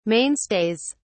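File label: "mainstays", British English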